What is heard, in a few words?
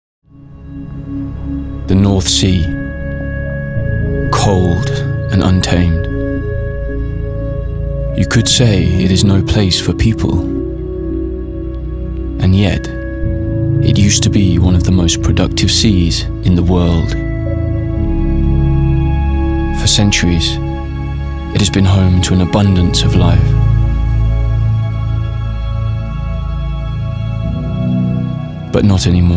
Male 25 35 years Medium Low, english international